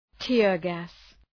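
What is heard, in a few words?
"tear gas", English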